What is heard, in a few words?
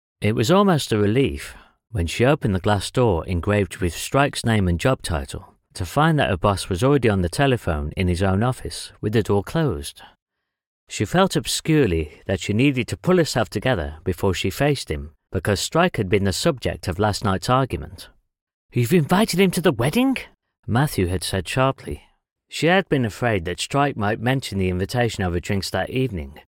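narration, English - England, Male; the cuckoos calling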